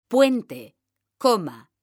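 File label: "puente", Spanish, female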